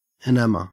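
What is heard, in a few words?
"enamor", Australian English; pronunciations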